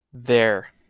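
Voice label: "there", English word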